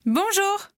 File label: conversation